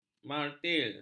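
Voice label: "martil", Malay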